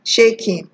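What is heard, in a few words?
"shaking", Nigerian Pidgin, female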